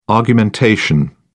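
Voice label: "argumentation", British English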